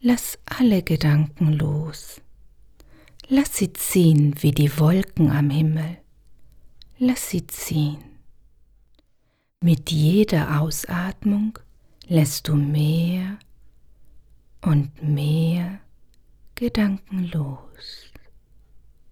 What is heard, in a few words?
Meditation